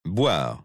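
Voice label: "boire", French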